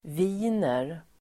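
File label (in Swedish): "viner", Swedish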